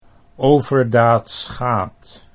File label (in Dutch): "overdaad schaadt", Dutch